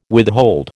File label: "withhold", American